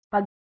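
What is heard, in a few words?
"ph2g", Proto-Indo-European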